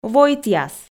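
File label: "войтъяс", Komi, female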